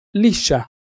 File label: "liscia", Italian Pronunciations